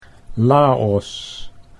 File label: "Laos", Dutch